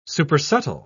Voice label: "supersubtle", en uk